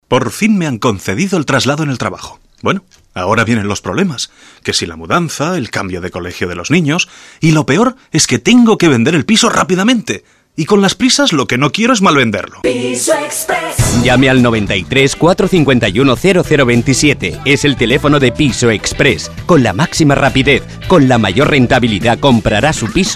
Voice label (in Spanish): Publicidad Radio voces comerciales musica; Piso Express